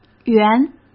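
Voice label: "圆", Mandarin Chinese; hsk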